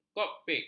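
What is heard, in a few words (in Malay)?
"kokpit", Malay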